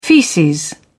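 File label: "feces", British English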